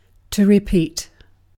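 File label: "repeat", British English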